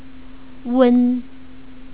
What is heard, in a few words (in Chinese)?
"wen", Chinese pronunciation